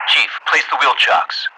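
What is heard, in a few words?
"request to place the wheel chocks", English speech; DCS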